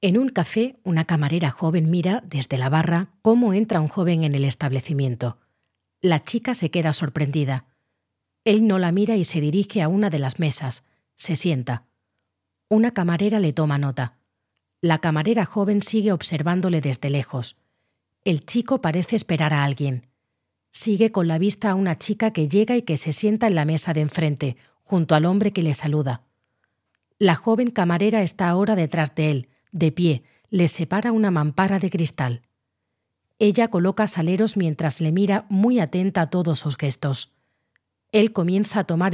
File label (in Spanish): Audiodescripcion